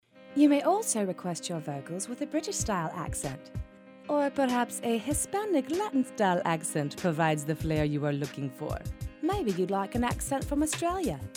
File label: British Hispanic and Australian Accent Samples, female voice talent